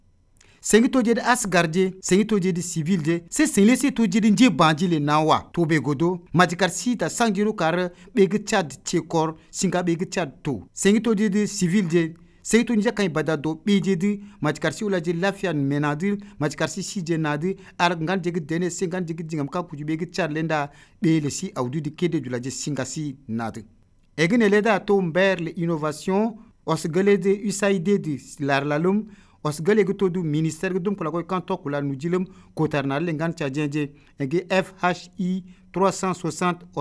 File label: Ngambai Message